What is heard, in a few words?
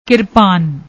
"kirpan", Punjabi; ਕਿਰਪਾਨ